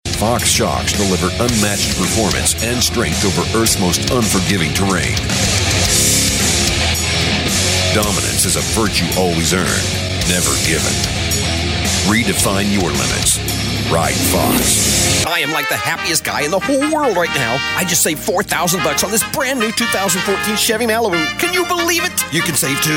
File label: Commercial